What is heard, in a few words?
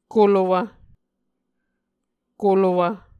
"kolowv", Muscogee